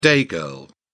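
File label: "day girl", English, British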